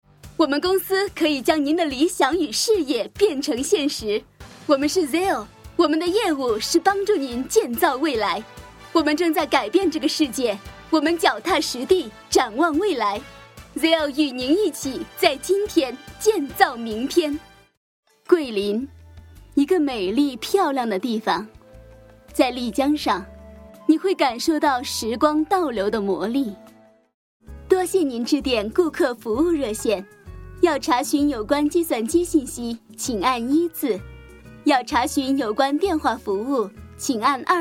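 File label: Mandarin, narration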